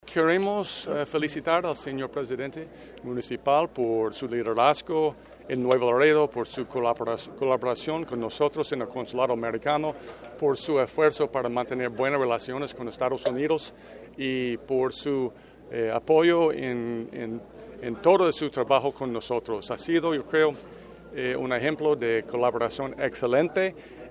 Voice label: testimonial; consul